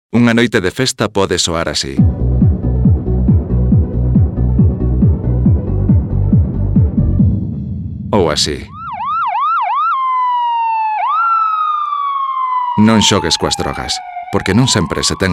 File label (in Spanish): Campañas gallego